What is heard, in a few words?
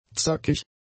"zackig", German; adjectives